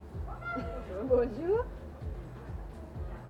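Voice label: "Bonjour", French; Hyeres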